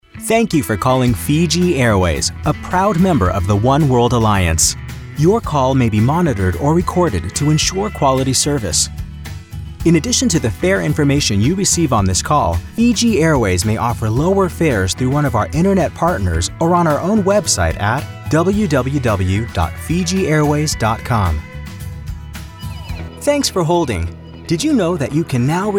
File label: IVR Telephony